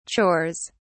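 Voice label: "chores", British English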